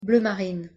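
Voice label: "bleu marine", French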